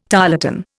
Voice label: "tarlatan", Indian

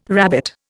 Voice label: "rabbit", Indian

